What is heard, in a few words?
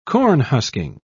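"cornhusking", British English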